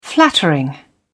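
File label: "flattering", British English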